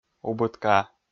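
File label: "убыткаа", Komi, male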